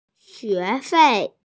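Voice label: "xue2fei4", Mandarin Chinese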